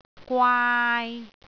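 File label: "gwaai3", Cantonese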